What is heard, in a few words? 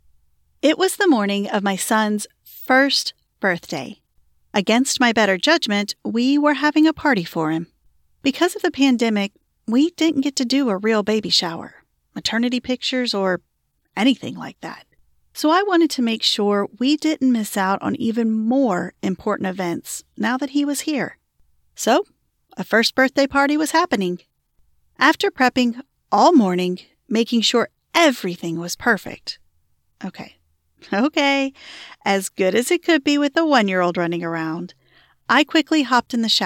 NonFiction General American, Self Help, Self Improvement